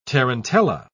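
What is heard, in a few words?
"tarantella", British English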